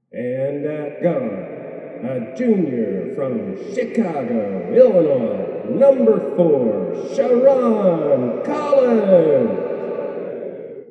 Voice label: Announcer; Two Books